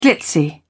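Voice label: "glitzy", British English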